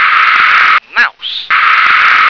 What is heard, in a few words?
"mouse", English